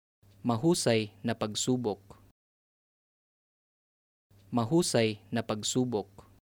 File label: Good try, Tagalog